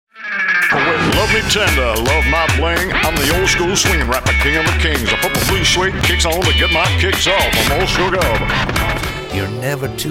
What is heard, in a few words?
character, Male voice over